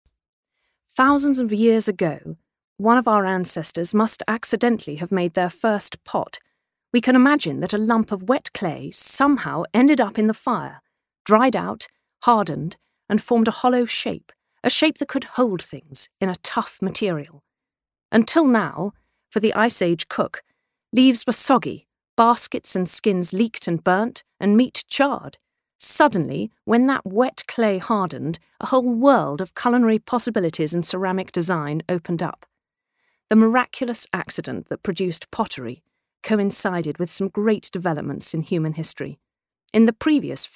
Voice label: British F, British English